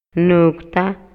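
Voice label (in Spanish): Maya, Yucatán, male; RELACIONES ESPACIALES; INALI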